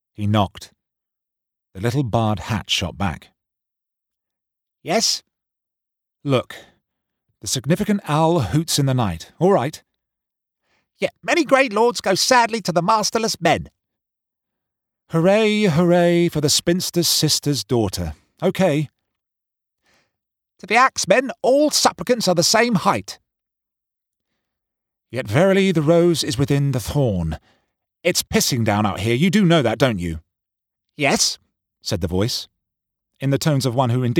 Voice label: AUDIOBOOK; Guards Guards

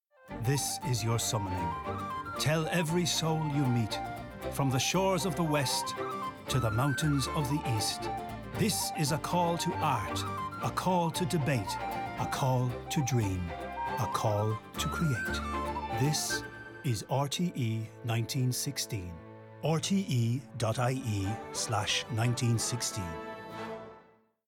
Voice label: CORPORATE; RTE 1916

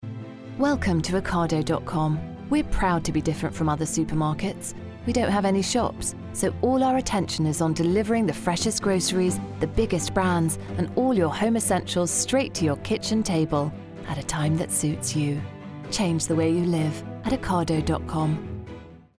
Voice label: commercial; Ocado

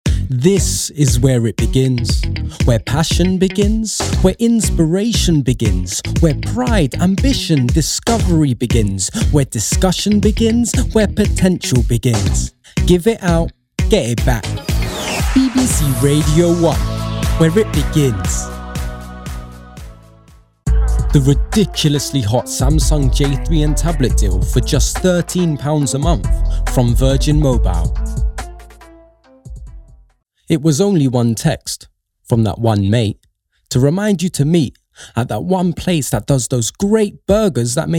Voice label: Commercial Reel

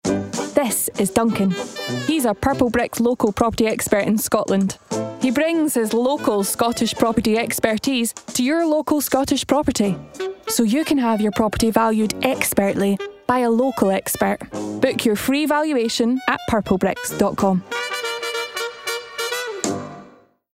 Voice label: COMMERCIAL; PurpleBricks

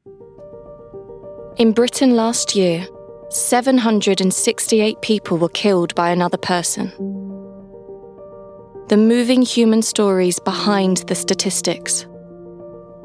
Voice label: TV Promo

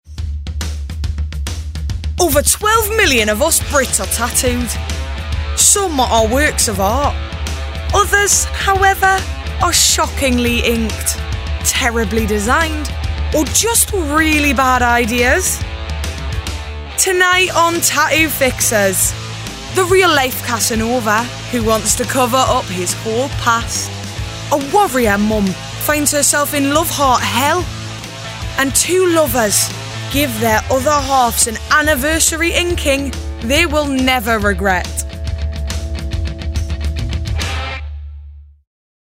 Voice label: Commercial; TATTOO FIXERS

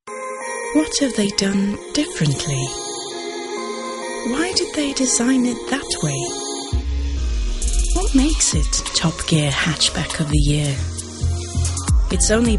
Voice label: COMMERCIAL REEL

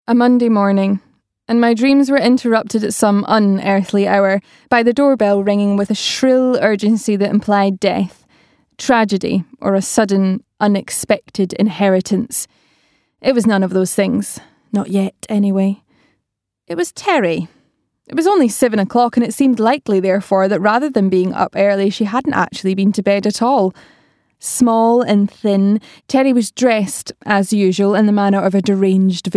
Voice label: AUDIOBOOK; Emotionally Weird

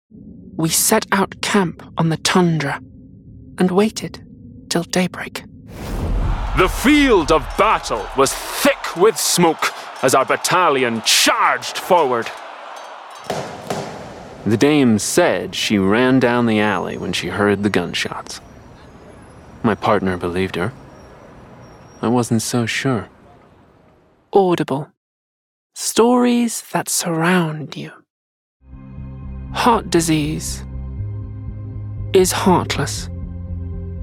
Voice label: Commercial

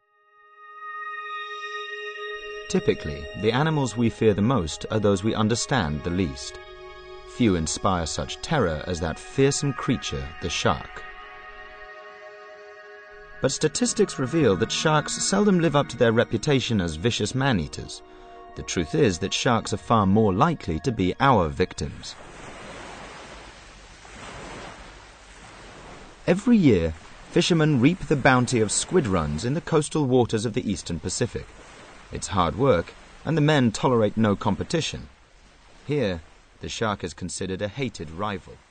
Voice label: Narrative; Shark

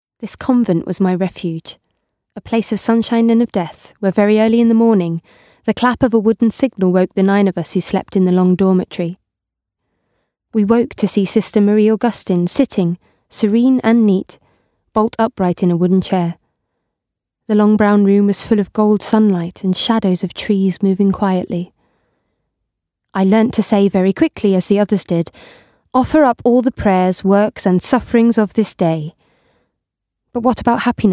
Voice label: AUDIOBOOK; Wide Sargasso Sea

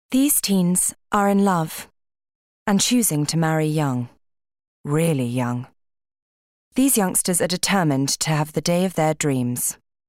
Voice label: Narrative; Baby Faced Brides

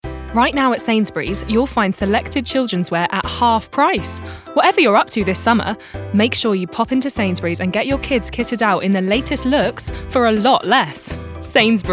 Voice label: advert; Sainsburys

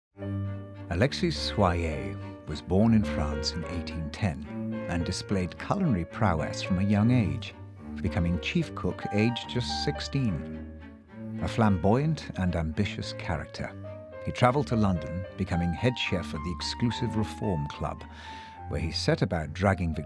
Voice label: narrative; Alexis Soyer

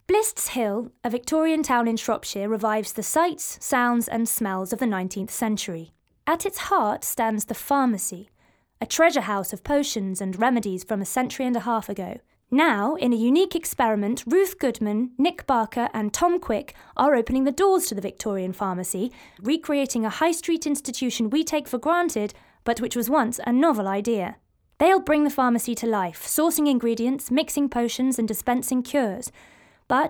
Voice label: narration

